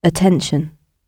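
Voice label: "attention", British English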